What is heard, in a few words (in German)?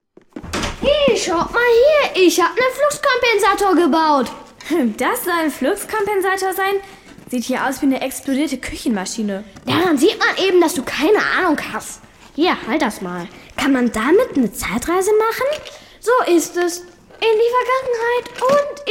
Hoerszene; Die Gute Nachricht